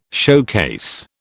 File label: "showcase", British